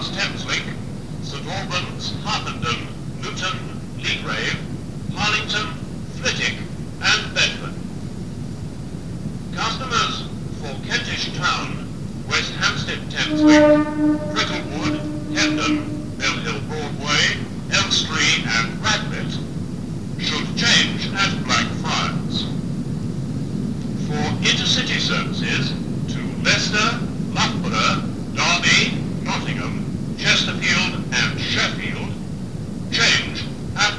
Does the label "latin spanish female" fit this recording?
No